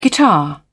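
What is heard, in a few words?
"guitar", British English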